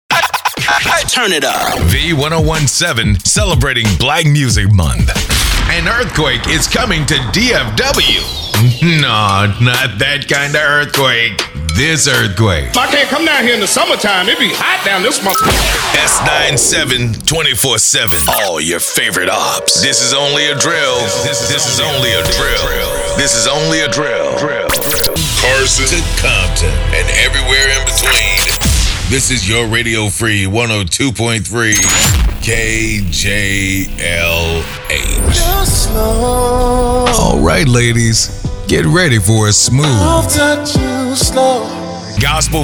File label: Voiceover